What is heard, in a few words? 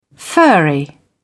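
"furry", British English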